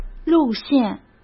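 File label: "路线", Mandarin Chinese; chinese flashcards; hsk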